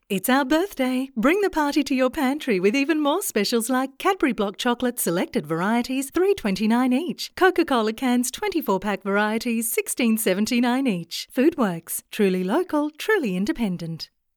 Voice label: TVC and Radio